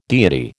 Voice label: "deity", American

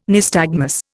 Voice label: "nystagmus", Indian

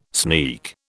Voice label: "sneak", British

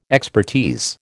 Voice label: "expertise", American

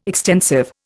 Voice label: "extensive", Indian

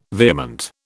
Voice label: "vehement", British

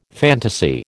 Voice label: "fantasy", American

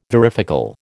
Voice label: "varifocal", American

